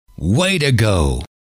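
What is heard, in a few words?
way To Go, male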